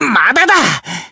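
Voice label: Voice damaged Japanese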